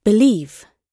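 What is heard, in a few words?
"believe", British English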